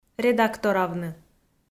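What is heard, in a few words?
"редакторавны", Komi, female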